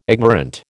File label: "ignorant", American